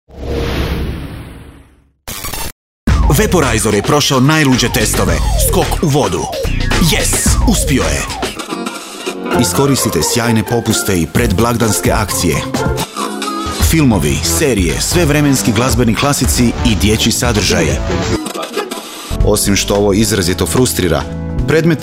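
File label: croatian male commercial, voice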